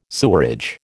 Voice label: "sewerage", American